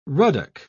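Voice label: "ruddock", English, British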